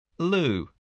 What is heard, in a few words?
"loo", spelling